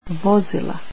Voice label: "cars", Bosnian

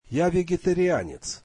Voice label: russian Im vegetarian

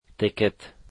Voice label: "ticket", Dari

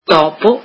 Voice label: italian mouse

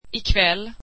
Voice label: tonight, swedish

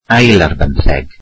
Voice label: "seventeen", Welsh

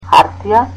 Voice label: "paper", Macedonian

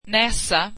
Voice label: swedish nose

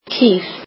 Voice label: "cow", Icelandic

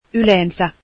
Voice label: usually, finnish

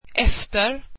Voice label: swedish after

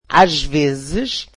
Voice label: portuguese sometimes

